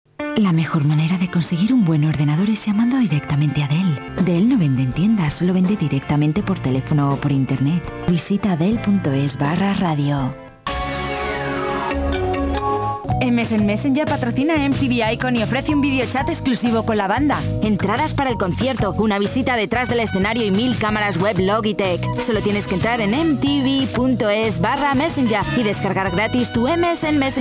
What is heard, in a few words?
spanish